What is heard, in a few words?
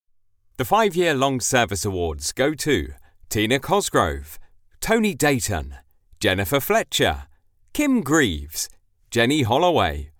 english british others